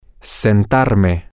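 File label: male, spanish; to Seat Myself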